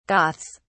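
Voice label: "goths", English, British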